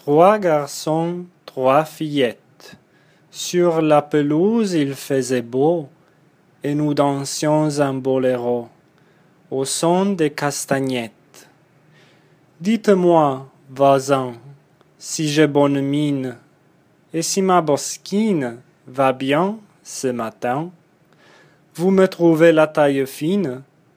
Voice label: Filles de Cadix espressivo